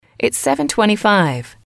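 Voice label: "its seven twenty five", English, female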